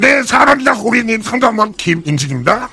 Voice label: heavy negative vocalization